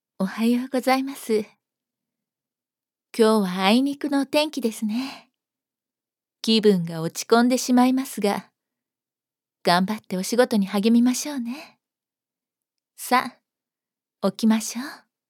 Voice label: メガネメイド

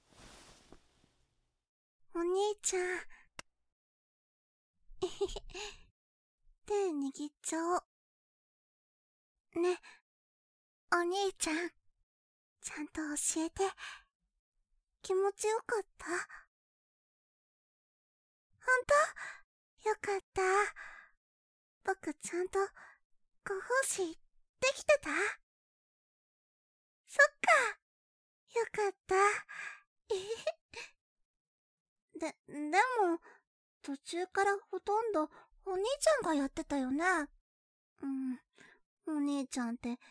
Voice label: ピロートーク

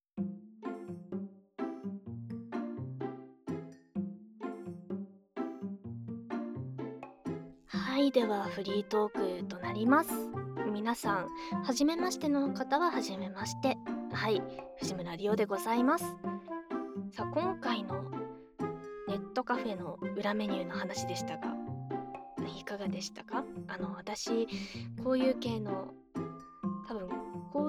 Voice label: フリートーク

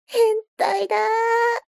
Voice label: mob 女子 台詞

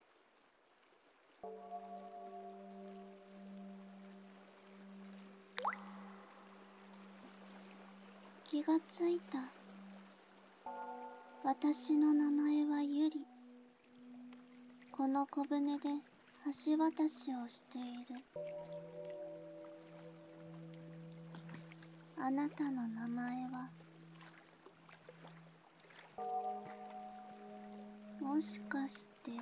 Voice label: BGMあり, ASMR

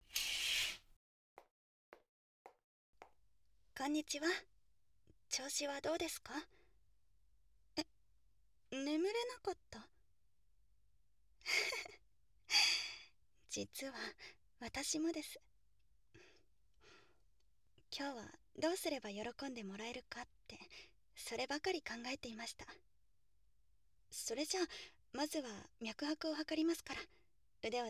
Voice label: ディープなキス、乳首責めと濃厚フェラ; 【二日目】; 本編（バイノーラル）

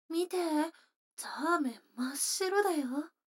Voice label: 女の子 台詞, ASMR

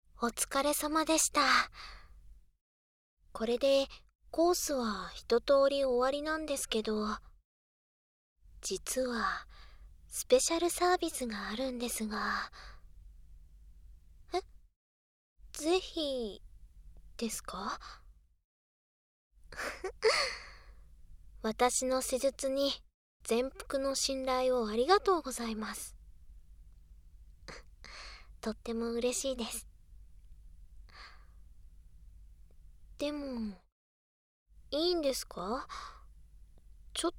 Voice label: お耳にスペシャルマッサージ（ノイズ除去なし）; 耳かきヒーリング; ダミーヘッド&ダミーボディマイク使用

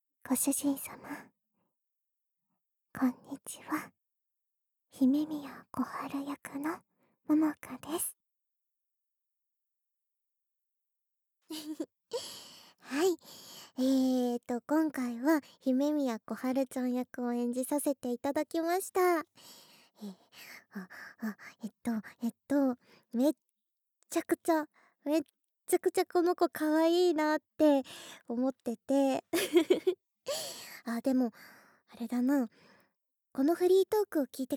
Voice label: フリートーク（BGMなし）, ASMR; SEなし

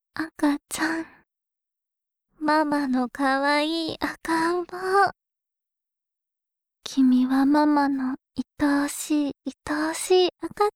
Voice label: 甘えん坊トレーニング, ASMR

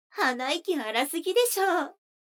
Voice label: 女の子 台詞, ASMR

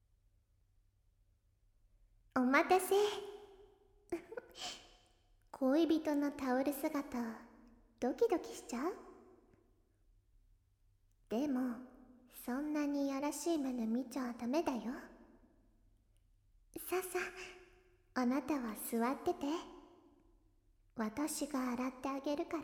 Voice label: ふわふわお風呂で癒されて…, ASMR

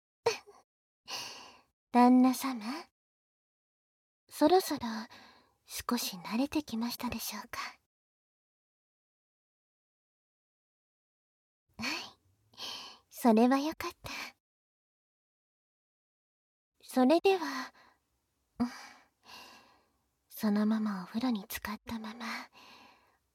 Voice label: （バイノーラルマイク収録 効果音なし）; お風呂の中で潜望鏡フェラ

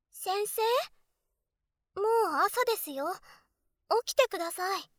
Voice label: 特典目覚まし音声

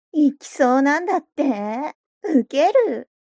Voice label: mob 女子 台詞

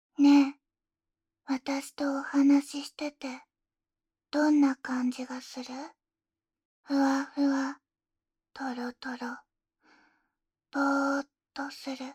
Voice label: 性感誘導

